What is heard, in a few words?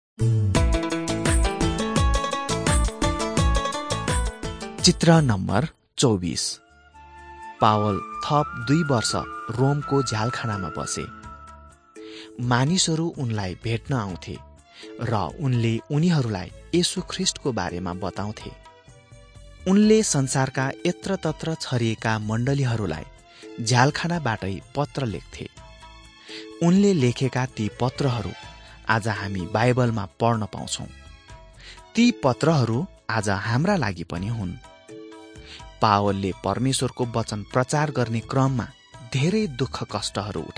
acts of the holy spirit nepali